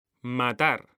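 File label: "matar", Spanish, male; mekanta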